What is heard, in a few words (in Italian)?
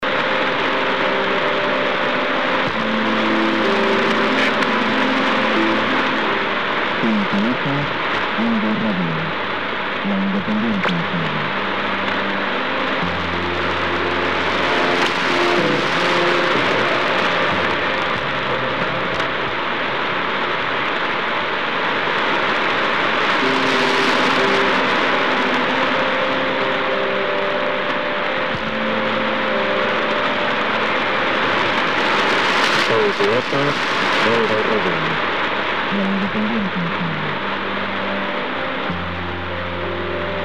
RADIO ANNUNCIO; INDY